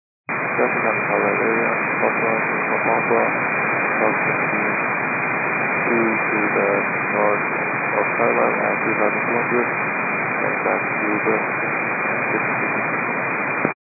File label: 122.925 MHz; scanner radio